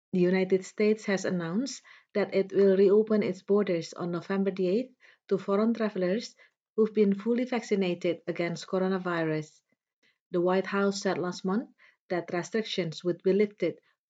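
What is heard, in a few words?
English; Golocalise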